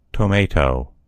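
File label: "tomatoe", American English; gcompris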